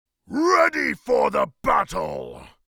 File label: Orc creature dialogue ready for the battle